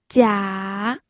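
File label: "jia", Mandarin Chinese, third tone; pinyin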